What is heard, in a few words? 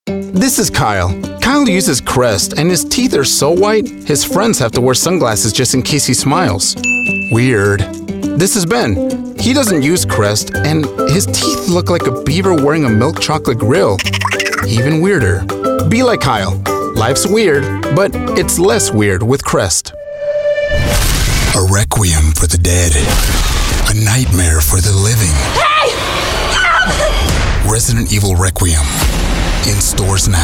Commercial